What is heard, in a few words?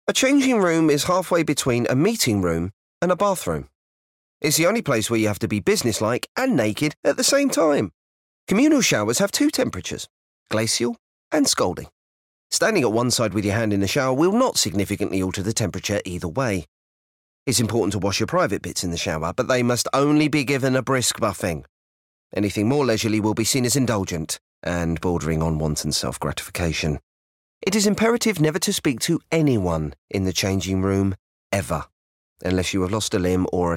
Audiobook